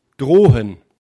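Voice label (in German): "drohen", German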